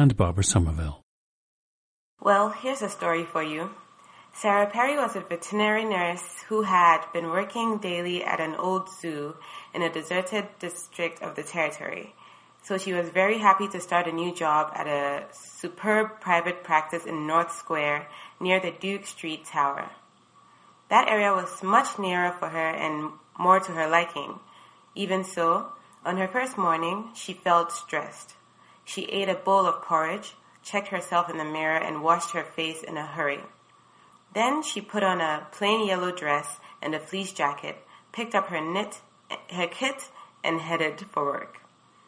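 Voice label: ghana, International Dialects of English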